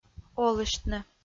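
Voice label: "олыштны" Komi-Zyrian, female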